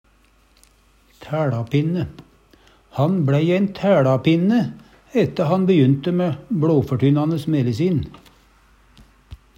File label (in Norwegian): tæLapinne, Numedalsmål; Han bLei ein tælapinne ette han bejynte mæ bLoførtynnande melesin..